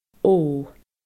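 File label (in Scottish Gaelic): "ó", Scottish Gaelic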